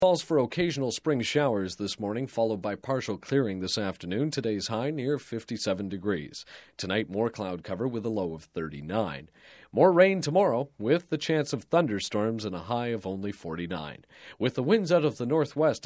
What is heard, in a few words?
Broadcast, Weather Generic Spring Forecast, Wet; Radio; Sound Ideas; Series 2000